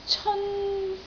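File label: "ceon1", Cantonese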